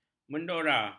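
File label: "mendora", Malay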